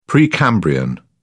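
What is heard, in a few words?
"precambrian", British English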